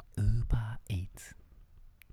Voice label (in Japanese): ウーバーイーツ 囁き